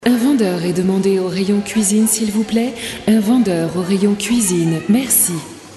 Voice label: announcement